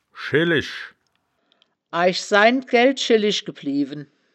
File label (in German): "schellisch", wittlich